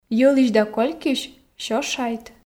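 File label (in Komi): "йӧлысь да колькйысь сё шайт", Komi, female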